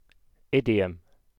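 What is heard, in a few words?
"idiom", British English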